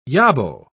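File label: "yobbo", British English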